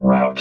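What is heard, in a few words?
"route", robot voice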